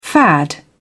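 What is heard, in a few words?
"fad", British English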